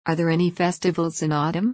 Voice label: fast; four seasons